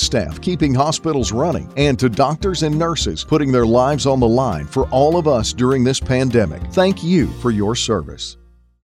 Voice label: Rural Healthcare commercial